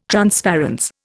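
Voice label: "transparence", Indian English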